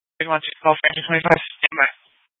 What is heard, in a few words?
Phx PD Fire Dispatch; RWC